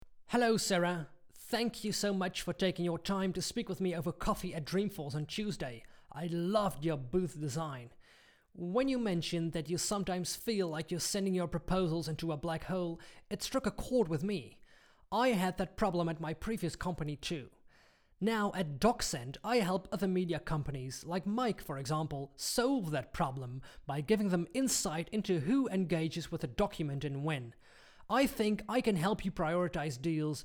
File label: UK English Sample